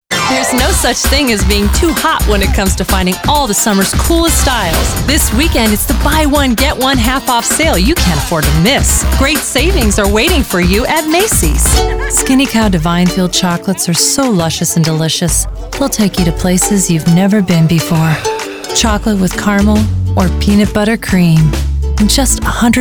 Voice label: Commercials, Professional Voiceover Artist; Female; Range: 20-40; Commercial Demo